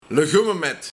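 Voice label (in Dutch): "Legummemet", Luik, Groentenmarkt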